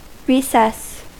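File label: "recess", American English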